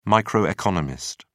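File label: "microeconomist", British English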